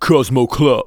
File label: cosmo club, spoken; Techno Trance Essentials